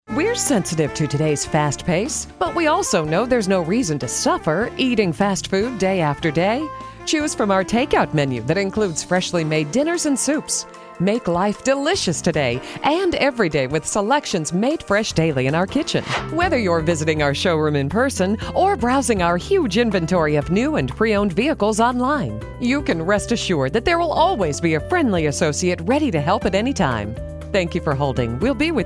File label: female